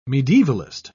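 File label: "medievalist", English, British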